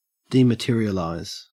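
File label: dematerialize, Australian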